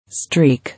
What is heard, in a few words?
"Streak", English